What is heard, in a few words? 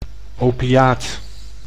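"opiaat", Dutch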